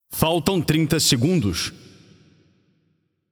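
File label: Time Remaining, Brazilian Portuguese; Paladins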